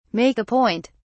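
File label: "make a point", British English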